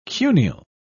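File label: "cuneal", British English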